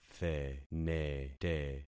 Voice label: "thenete", concat syllables; Affixing experiment

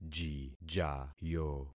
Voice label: "jijayo", concat syllables; Affixing experiment

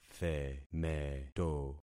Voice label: "themeto", concat syllables; Affixing experiment

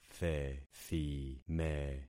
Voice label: "thethime", concat syllables; Affixing experiment

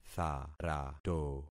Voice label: "tharato", concat syllables; Affixing experiment

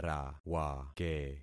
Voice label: "rawake", concat syllables; Affixing experiment

